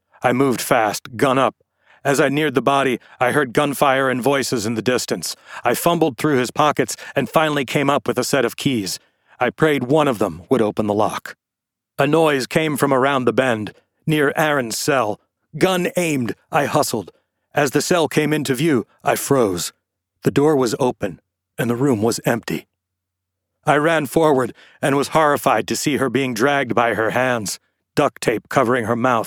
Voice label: THRILLER Action Adventure 1st Person Male Rescue Shoots Bad Guy; audiobooks